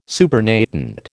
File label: "supernatant", American